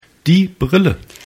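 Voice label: "brille", German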